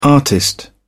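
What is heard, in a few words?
"artist", English, British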